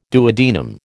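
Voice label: "duodenum", American English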